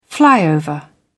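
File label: "flyover", British English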